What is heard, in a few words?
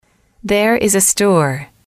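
"there is a store", English, female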